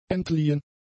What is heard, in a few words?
"entliehen", German; adjectives